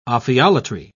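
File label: "ophiolatry", British English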